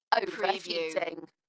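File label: British female Over heating; Dalekness; beanstalk audio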